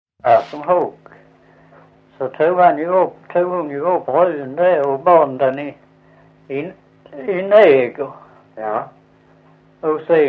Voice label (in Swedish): Visseltofta SK, dialekter